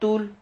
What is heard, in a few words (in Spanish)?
"tul", Silabas